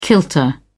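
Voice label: "kilter", British English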